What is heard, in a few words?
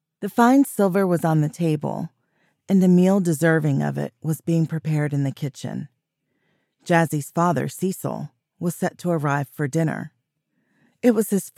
Fiction 3rd Person POV